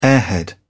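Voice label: "airhead", British English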